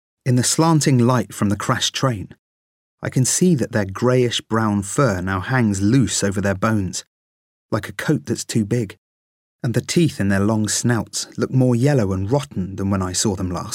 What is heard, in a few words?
Audiobook